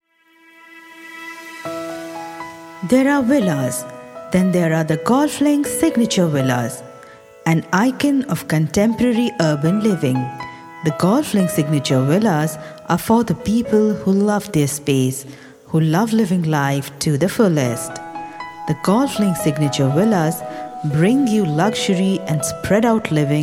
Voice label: promo eng